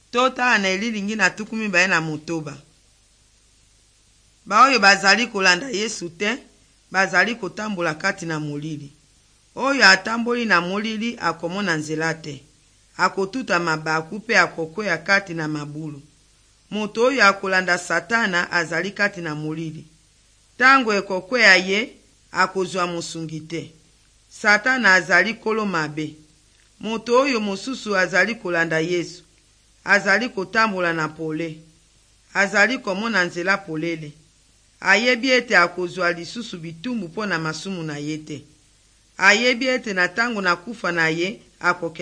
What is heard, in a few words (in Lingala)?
good news lingala west, drama